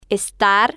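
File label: "estar", Spanish, female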